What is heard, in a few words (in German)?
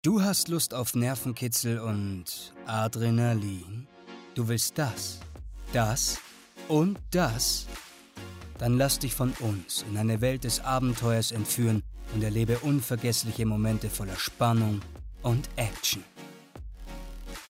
Werbung Abenteuer